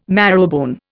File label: "marrowbone", Indian